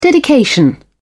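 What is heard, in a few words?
"dedication", British English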